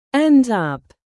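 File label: "end up" British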